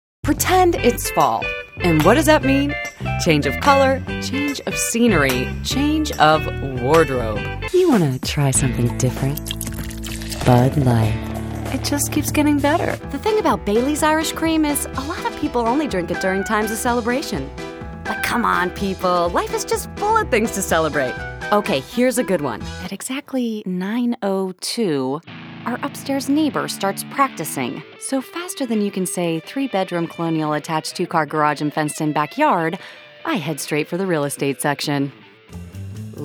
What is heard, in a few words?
Commercial